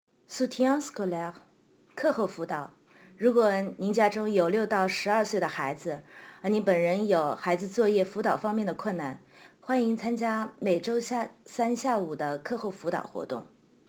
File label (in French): chinois